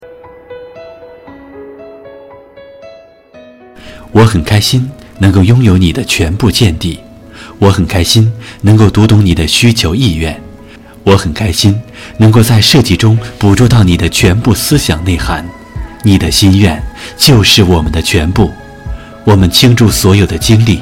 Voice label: 广告 装修

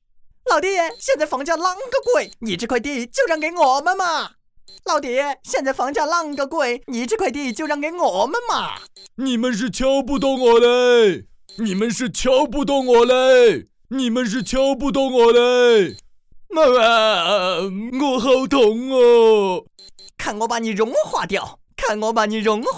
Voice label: 川普角色试音

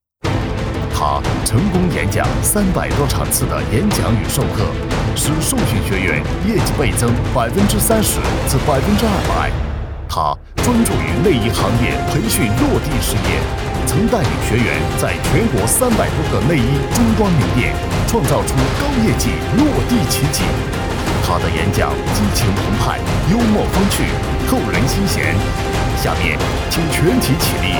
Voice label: 激情宣传活动开场

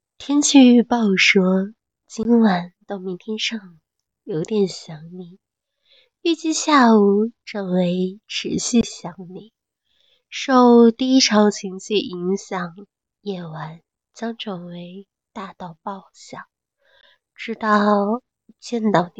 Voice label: 御姐

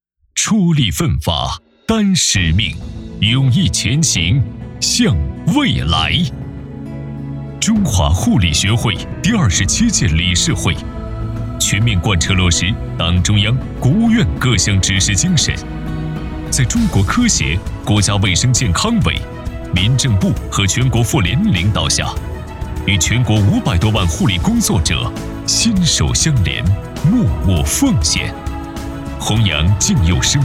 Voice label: 专题汇报类; 中华护理学会年度总结

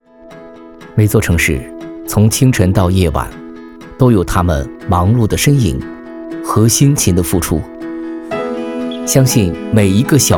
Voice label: 广告青春阳光银行宣传青春版

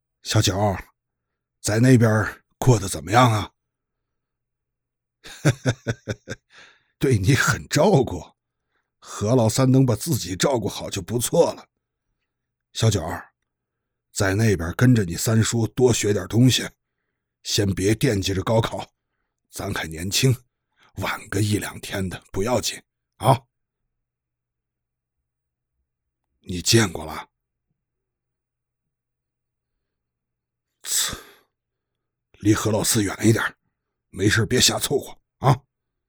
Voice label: 试音爷爷

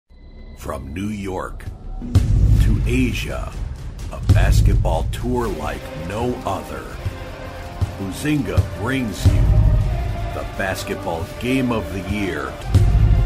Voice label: 外籍英语美式游戏广告深沉大气

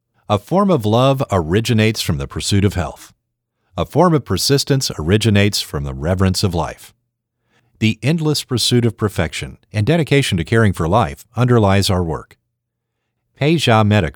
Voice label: 外籍英男产品宣传

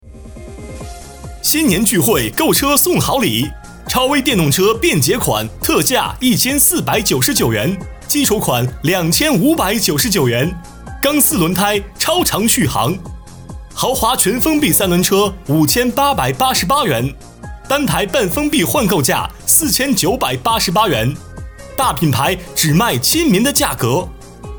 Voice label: 电动车 广告

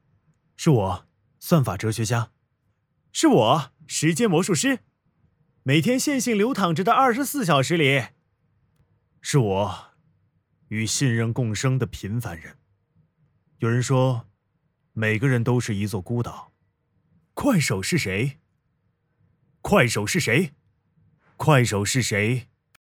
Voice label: 四个男性角色; 快手是谁

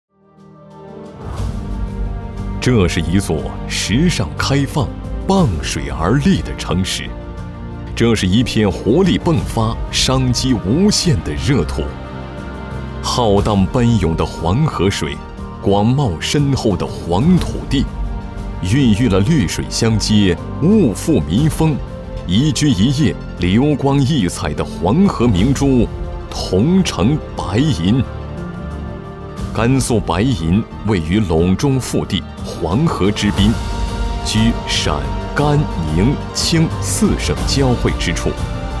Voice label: 招商宣传片; 白银市

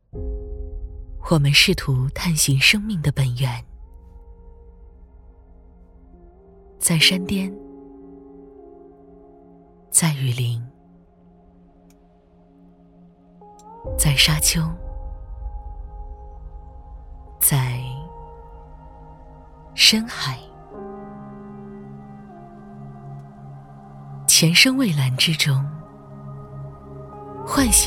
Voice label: 独白走心自然; 生命的本源

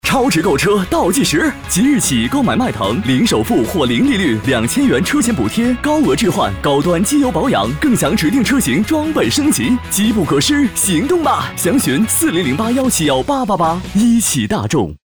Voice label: 电台广播; 一汽大众

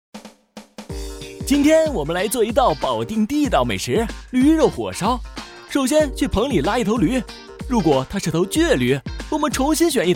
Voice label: 搞怪解说

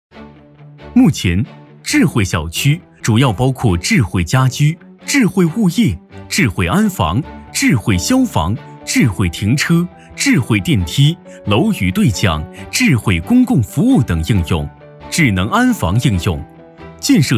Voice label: 宣传类活力型

